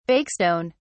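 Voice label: "bakestone", British English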